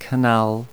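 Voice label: "canal", English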